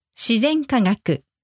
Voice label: "shizenkagaku", Japanese; natural science